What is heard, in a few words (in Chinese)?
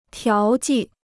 "调剂", Chinese; tiáo jì; to adjust; to balance